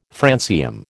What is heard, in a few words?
"francium", American